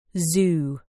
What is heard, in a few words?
"zoo", uk